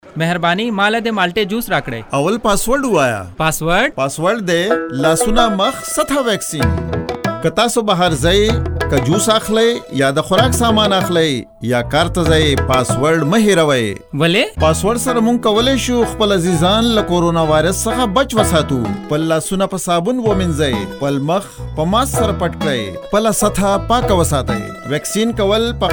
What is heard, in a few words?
Juice Seller Pashto